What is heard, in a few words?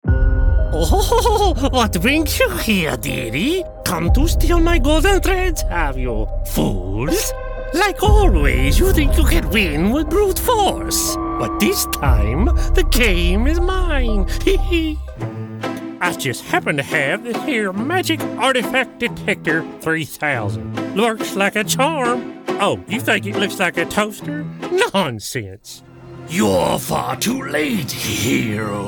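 Character, American male voiceover; Character Demo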